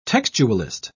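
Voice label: "textualist", English, British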